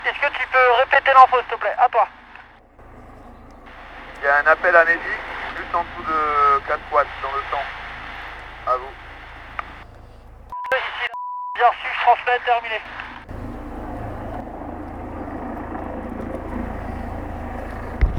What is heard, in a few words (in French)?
talkie appel a medic